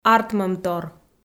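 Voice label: "артмӧмтор", Komi, female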